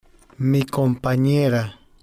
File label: "mi Companera", spanish